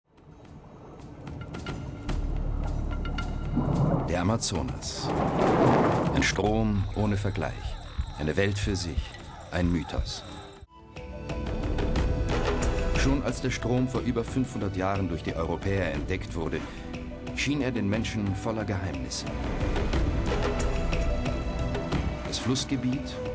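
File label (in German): kommentar, sprecher; LOFT VOICES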